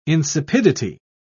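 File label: "insipidity", British English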